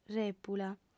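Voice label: "rèpula", Sardinian, female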